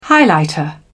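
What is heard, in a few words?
"highlighter", British English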